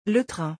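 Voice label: "le train", French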